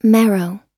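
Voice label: "marrow" US English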